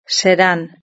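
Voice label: "serán", Spanish; verb-conjugator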